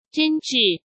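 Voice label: "真挚", Mandarin Chinese; chinese flashcards; hsk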